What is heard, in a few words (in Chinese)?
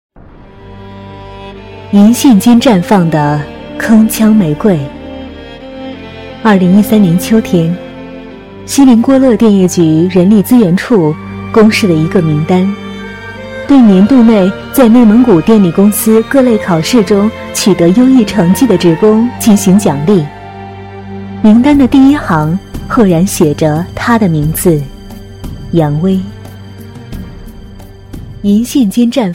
女 人物; 银线间绽放的铿锵玫瑰